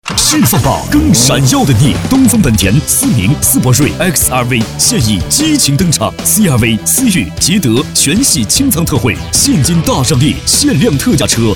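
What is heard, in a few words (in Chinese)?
国语 男声 广告 大气浑厚磁性; 科技感; 积极向上; 泛海园-房地产广告-恢宏有力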